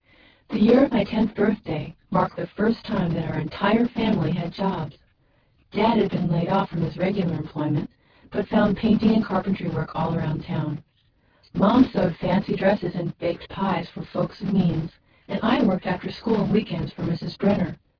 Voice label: female clean